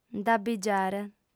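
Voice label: "nd'abbigiare" Sardinian, female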